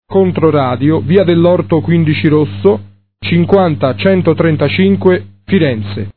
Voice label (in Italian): annuncio indirizzo